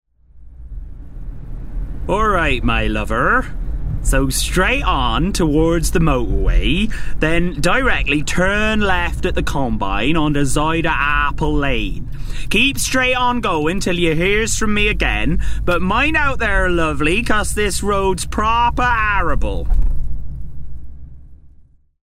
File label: SEAT SATNAV BRISTOL; seat reveals its media audio recognition technology smart nav system